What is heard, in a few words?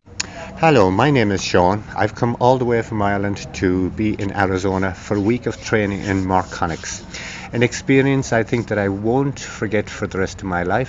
testimonial